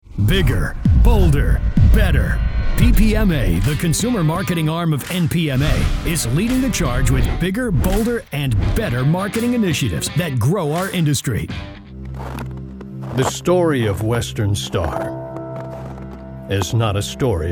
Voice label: men narration

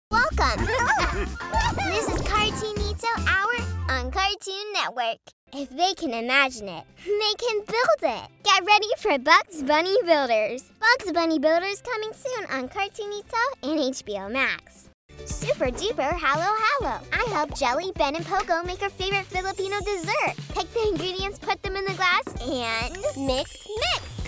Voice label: kids promos

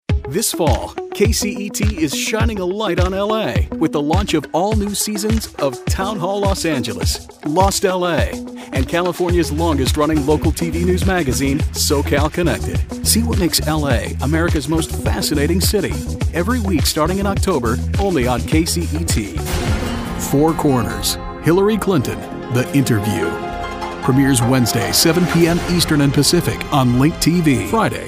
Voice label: men tv affiliate

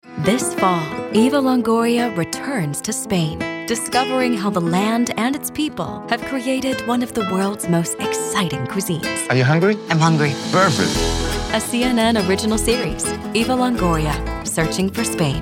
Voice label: women promos; Warm, conversational, friendly, articulate, caring, genuine, sensual, luxurious, classy.

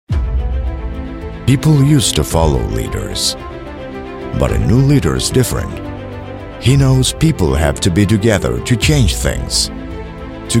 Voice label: spanish accented english; (S) Argentinian Spanish and Brazilian Portuguese Speaker. He's an attention grabber for sure. Textured, Deep Voice of God.

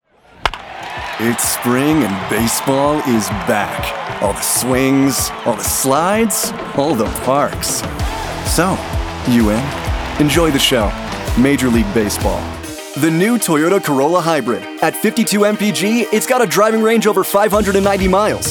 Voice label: men commercial

